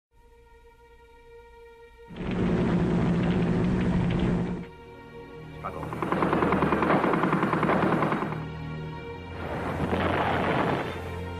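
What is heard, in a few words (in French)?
french Belgian male narration; voice demos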